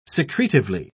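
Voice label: "secretively", British English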